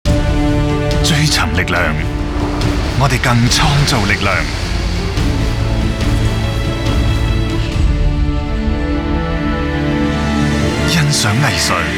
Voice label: Stereo MIX CANTONESE; BUICK; TVC